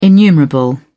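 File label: "innumerable", British English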